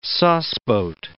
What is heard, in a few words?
"sauceboat", English